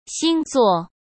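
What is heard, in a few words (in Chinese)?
"星座" Mandarin Chinese; hsk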